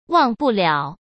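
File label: "忘不了", Mandarin Chinese; hsk; chinese-flashcards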